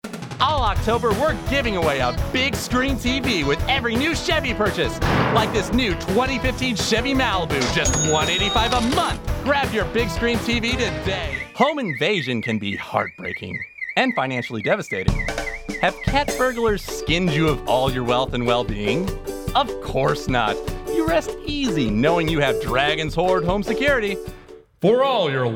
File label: Commercial, voice acting